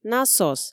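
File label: "насос", Komi, female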